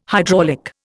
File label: "hydraulic", Indian